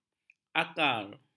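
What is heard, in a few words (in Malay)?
"akar", Malay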